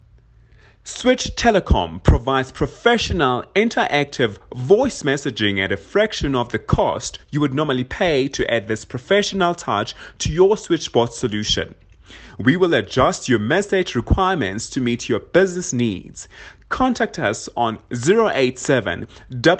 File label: professional male recording; Switch Telecom; professional South African voice recording (IVR) service